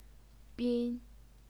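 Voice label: "bin", Mandarin Chinese, first tone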